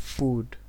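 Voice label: "food", Nigerian Pidgin, male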